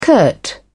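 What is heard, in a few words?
"curt", British English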